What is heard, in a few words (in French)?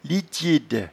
"litchide", patois jura